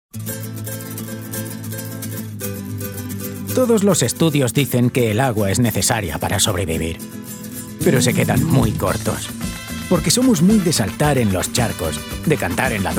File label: COMMERCIAL, Spanish, male